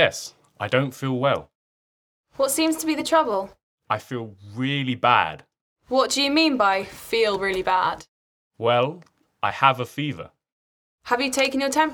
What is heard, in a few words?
Conversation; Bedside Manner Beginner